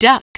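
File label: "duck", English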